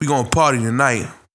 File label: Vocals